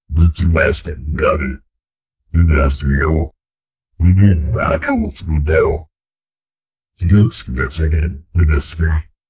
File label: superhero on tv introduci